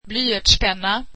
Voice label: swedish pencil

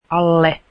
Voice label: under, finnish

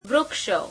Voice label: gujarati trees

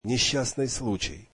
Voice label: russian accident

